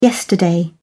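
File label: "yesterday", British English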